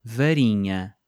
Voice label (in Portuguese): "varinha", Portuguese